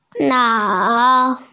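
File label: "nao3", Mandarin Chinese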